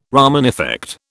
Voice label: "Raman effect", British